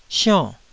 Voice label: "sionn4", taiwanese Syllables